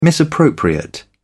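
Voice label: "misappropriate", British English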